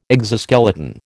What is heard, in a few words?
"exoskeleton", American